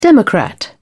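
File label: "democrat", British English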